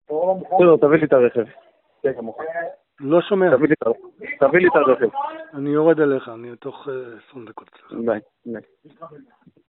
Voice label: Call recording